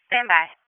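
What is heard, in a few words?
Police Southwest District Dispatch, p25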